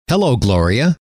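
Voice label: "hello", male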